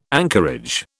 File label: "anchorage", British